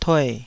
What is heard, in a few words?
"tue3", Taiwanese